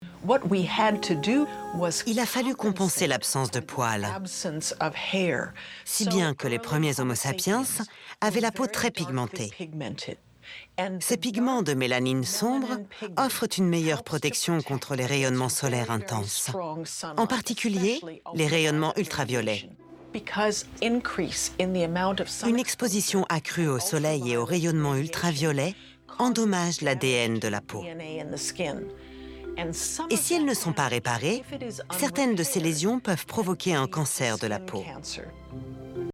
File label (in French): Voice Ton Explicatif